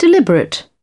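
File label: "Deliberate", British English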